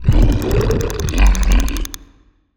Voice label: portrait synthetic; Stellaris; machineage